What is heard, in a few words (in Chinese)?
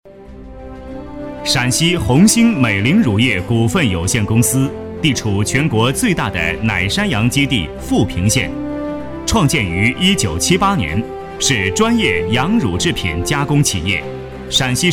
专题 企业 乳业公司 大气, 男; 陕西